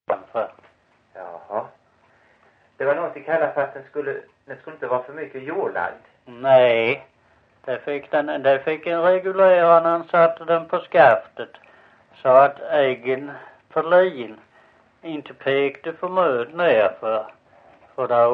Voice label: Visseltofta SK, dialekter

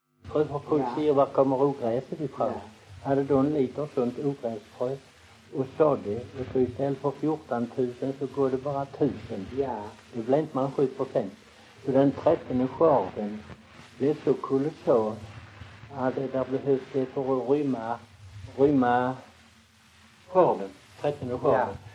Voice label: Väsby SK, dialekter; Folkminnen; Svenska sagor

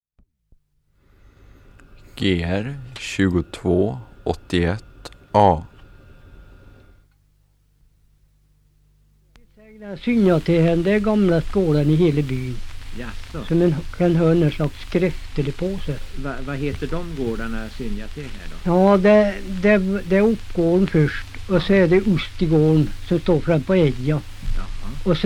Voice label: Hede, dialekter, sagor; Folkminnen

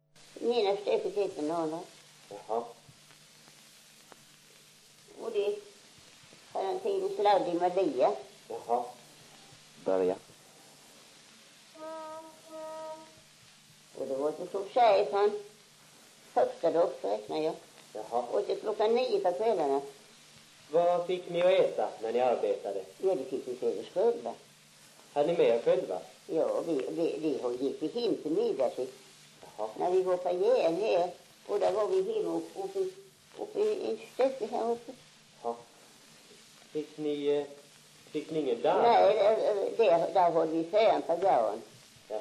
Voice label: dialekter; Folkminnen; Svenska sagor

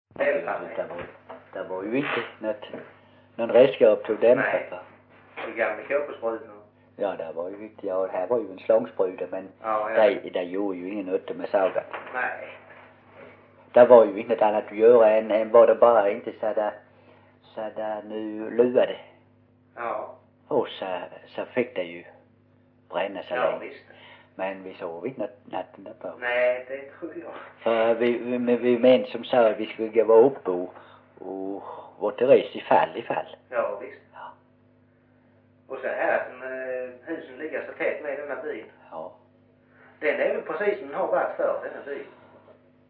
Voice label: Osby, dialekter; Folkminnen; Svenska sagor

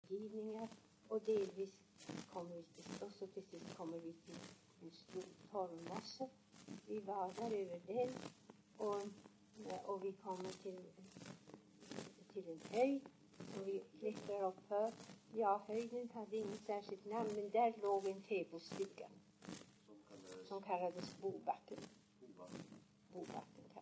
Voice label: dialekter; Folkminnen; Svenska sagor

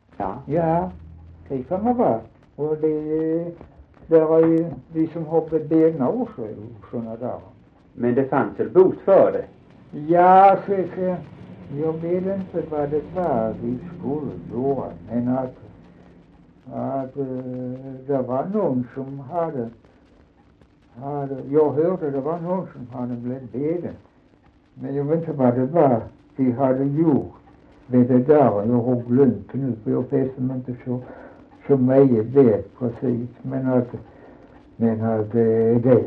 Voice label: Dalby SK, dialekter; Folkminnen; Svenska sagor

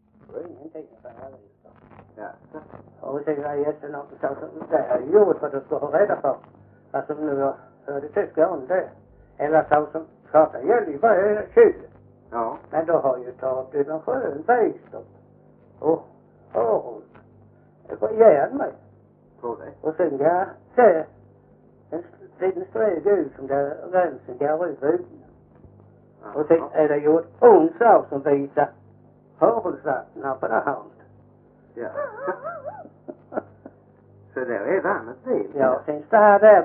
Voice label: Visseltofta SK, dialekter; Folkminnen; Svenska sagor

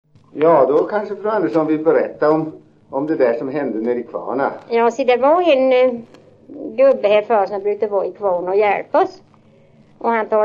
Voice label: dialekter; Folkminnen; Svenska sagor

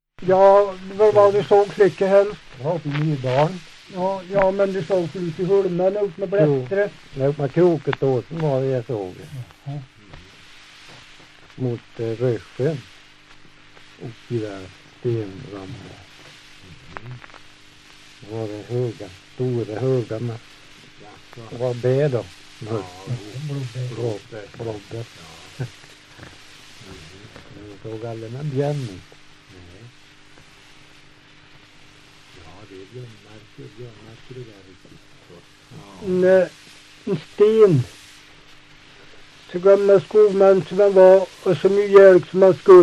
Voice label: Hede, dialekter; Folkminnen

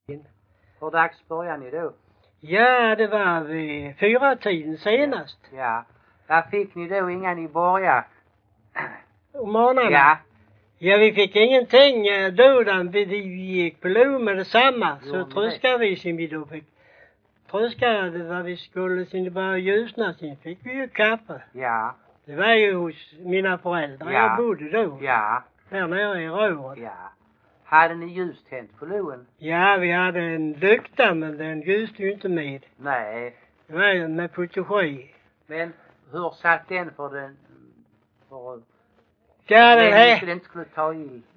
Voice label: Bosjökloster, dialekter, sagor; Folkminnen; Svenska sagor

